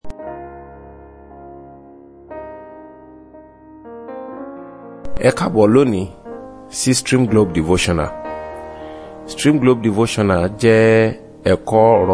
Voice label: Devotional Audio Yoruba